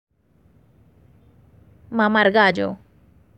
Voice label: Medellin Slang Expression